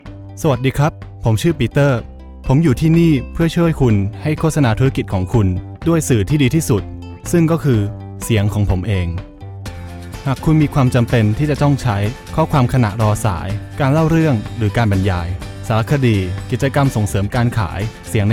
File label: Thai